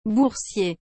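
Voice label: "boursier", French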